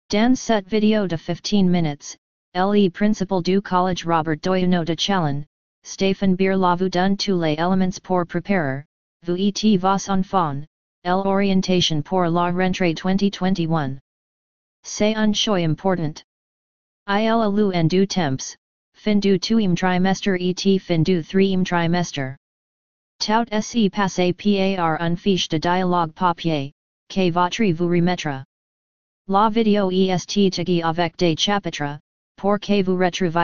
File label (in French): Texte lu en Anglais